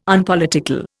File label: "Unpolitical", Indian